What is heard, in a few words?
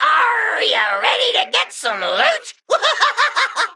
Male Mech Emote PIRATE DAY